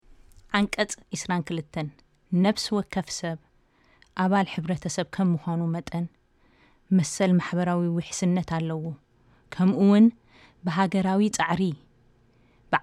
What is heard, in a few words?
Tigrinya